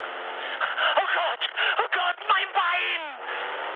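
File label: German damaged pilot mood high, speech; War Thunder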